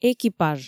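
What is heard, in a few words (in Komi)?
"экипаж", Komi, female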